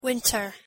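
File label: "winter", English pronunciation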